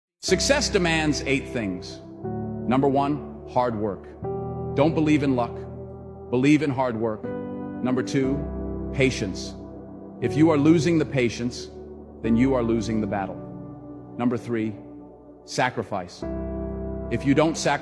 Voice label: sports motivational; جملات انگیزشی ورزشی خارجی